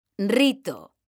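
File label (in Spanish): "rito", female; mekanta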